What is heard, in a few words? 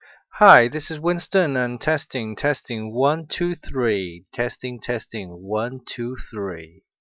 test podcast mic